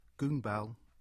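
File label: "Goonbell", English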